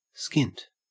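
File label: "skint" English, Australian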